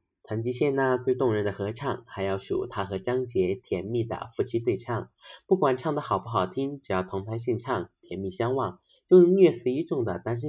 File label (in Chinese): 演绎类